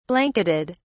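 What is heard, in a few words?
"blanketed", British English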